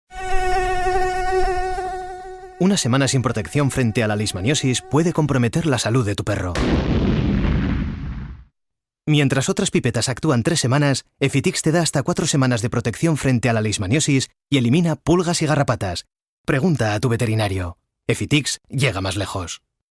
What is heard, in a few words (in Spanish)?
Voz para TV en España